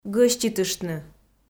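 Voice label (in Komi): "гӧститыштны", Komi, female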